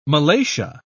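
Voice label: "malacia", British English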